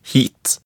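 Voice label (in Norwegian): "hit", Norwegian; = here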